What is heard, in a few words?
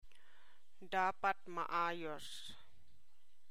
Tagalog; needs to be fixed - dapat maayos